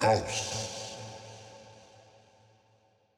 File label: EDM Future House, Vocals; Big EDM; Future House Tools